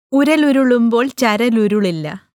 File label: Malayalam Recordings